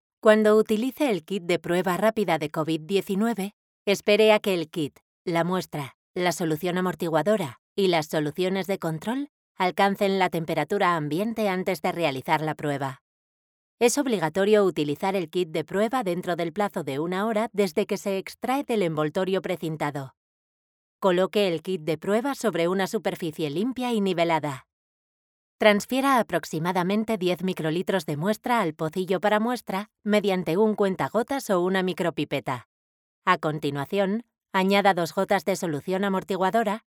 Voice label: E LEARNING MEDICO

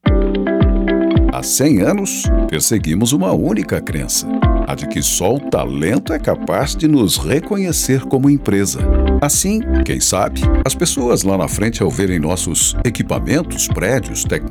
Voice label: INSTITUCIONAL

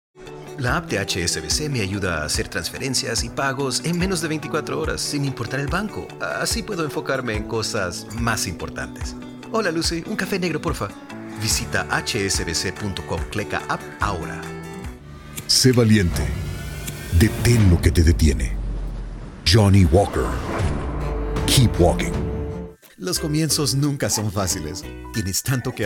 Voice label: Comercial Español

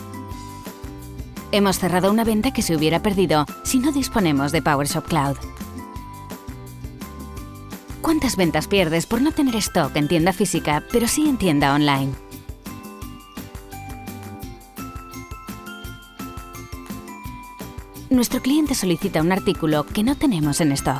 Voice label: ANIMACION Corporativa

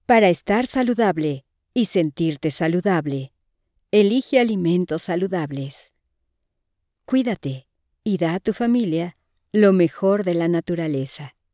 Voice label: comercial saludable

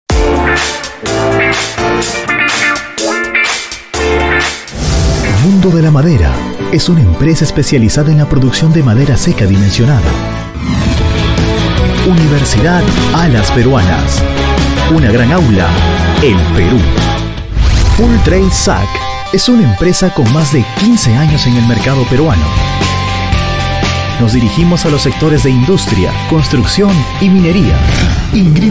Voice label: corporativo

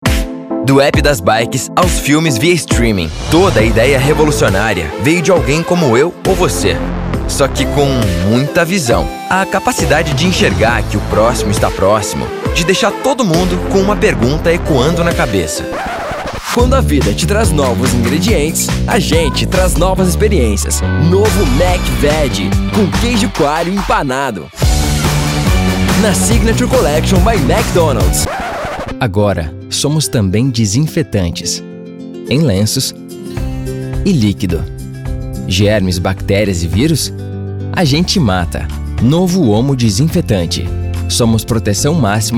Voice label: REEL Comercial

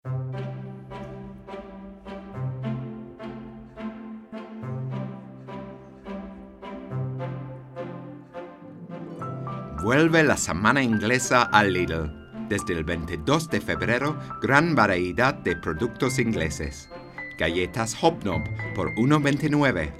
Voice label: Castellano; Lidl

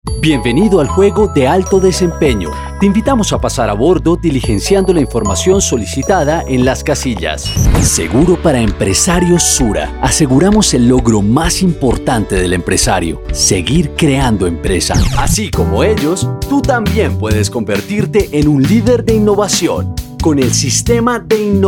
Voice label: Institucional

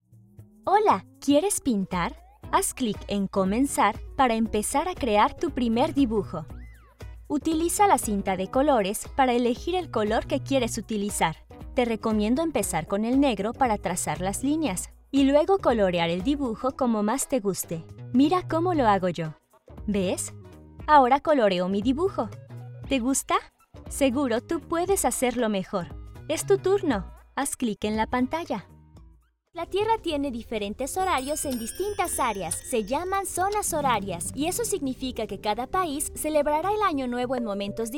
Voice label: Educacion infantil E-learning ES neutro

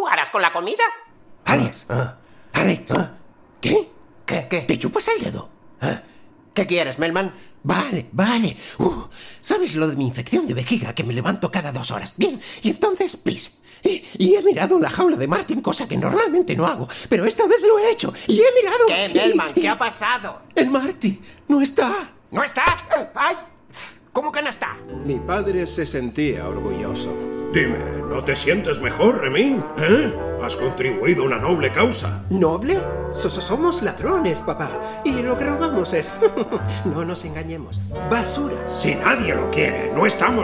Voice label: ANIMACIÓN

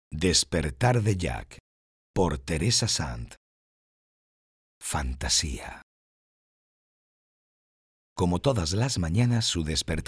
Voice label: narración

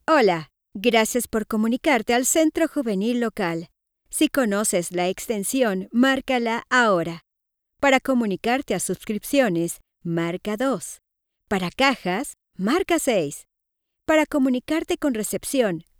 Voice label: Contestador telefonico

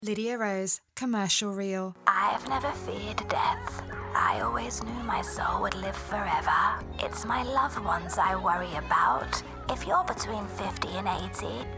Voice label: comercial

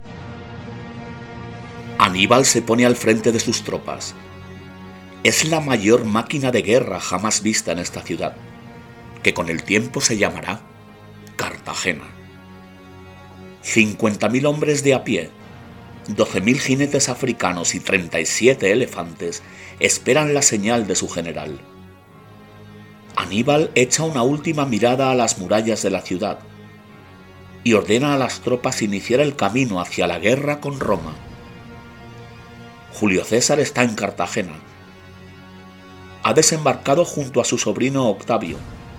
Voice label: DOCUMENTAL MONO

